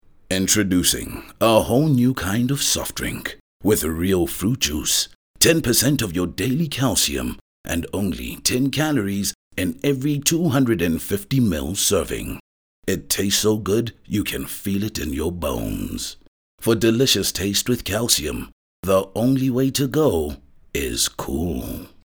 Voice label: English Movie Trailer; OSM Talent

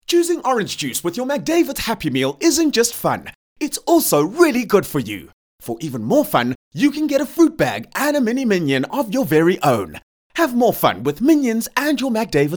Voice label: Bright; OSM Talent

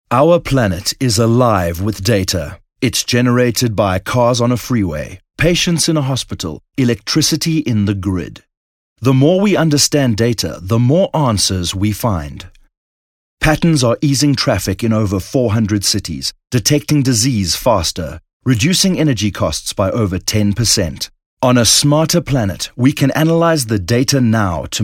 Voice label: English Conversational

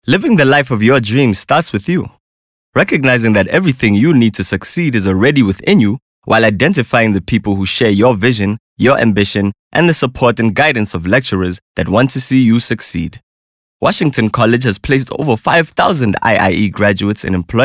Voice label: English Conversational

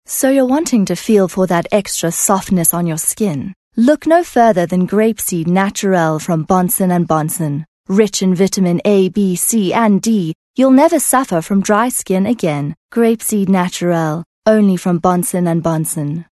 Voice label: English Softsell

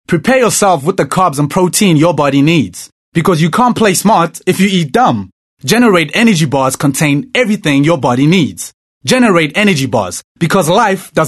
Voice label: English Hardsell

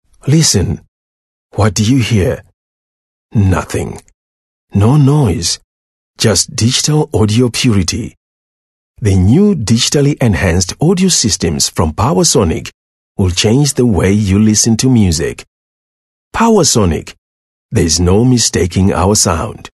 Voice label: English Intimate

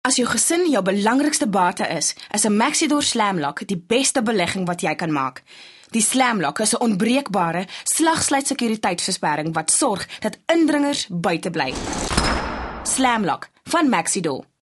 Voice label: Afrikaans Hardsell

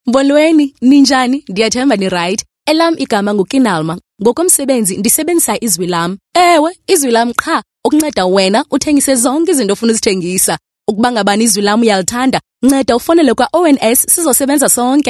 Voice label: Xhosa Conversational

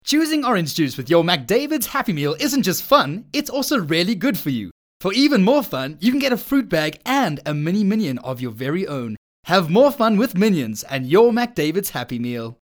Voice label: English Bright; OSM Talent

